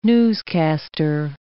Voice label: "newscaster", English

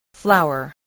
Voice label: "flower", spelling

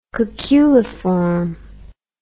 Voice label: "cuculiform", english

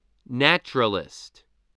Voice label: "naturalist", voice

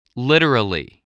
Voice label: "literally", spelling

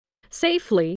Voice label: "safely", English spelling

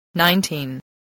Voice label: "nineteen", voice

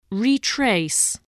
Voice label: "retrace", English

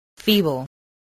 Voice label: "feeble", spelling

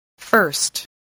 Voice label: "first", spelling

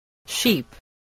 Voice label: "sheep", voice spelling

